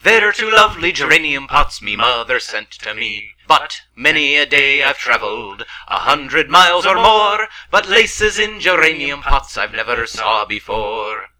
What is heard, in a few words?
Irish Take Receipt